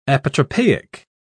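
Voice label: "apotropaic", British English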